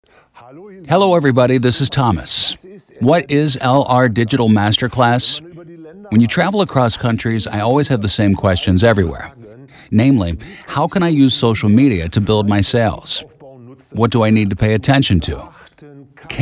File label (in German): Dokumentarfilm; NATIVE PROFISPRECHER